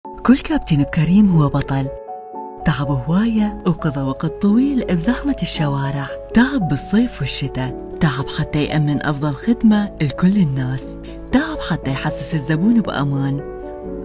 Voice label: Iraqi; iraqi voiceover

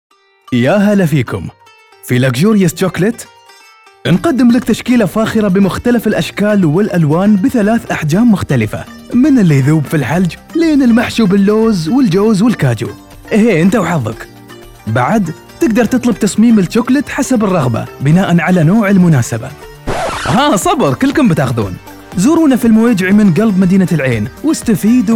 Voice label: UAE commercial; emirati voiceover

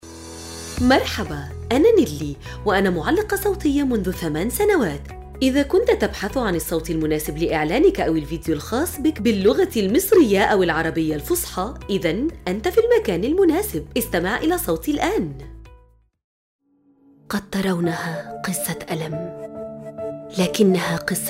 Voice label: MSA Egyptian TVC, msa voiceover; demoreel

